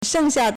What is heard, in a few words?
"sheng4xia4", Chinese